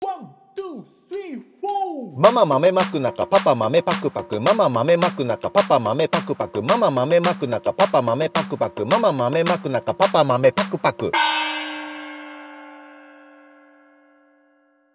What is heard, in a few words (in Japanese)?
早口言葉リズム（中級編）